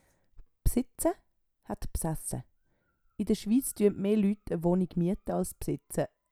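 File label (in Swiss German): "bsitze", Swiss German; Wortschatz Kapitel 8; Kapitel 8 Zusatzwortschatz 4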